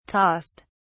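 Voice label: "tossed", English, British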